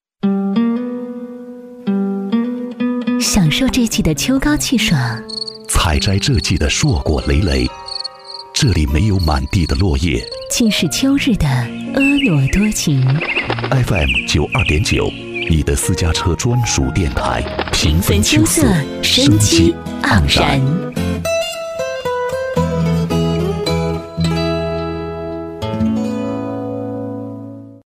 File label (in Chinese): 男 女 台宣 男女对播; 大气激情 质感磁性; 德州电台929